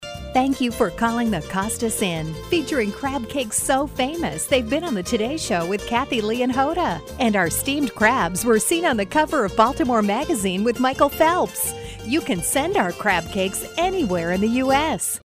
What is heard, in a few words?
On Hold Upbeat